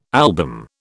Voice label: "album", British